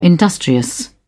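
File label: "industrious", British English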